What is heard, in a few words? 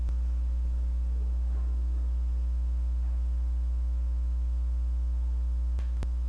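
"pick", spoken word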